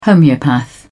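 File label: "homeopath", British English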